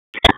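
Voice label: Raleigh Police Northeast District Dispatch, p25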